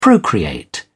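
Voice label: "procreate", English, British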